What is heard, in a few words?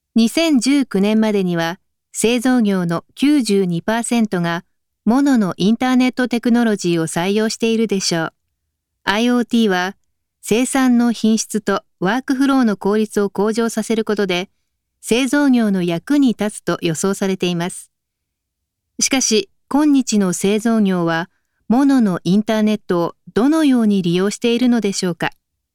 Corporate Video; ARUBA